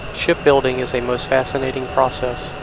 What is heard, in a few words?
Noisy Speech F16 Noise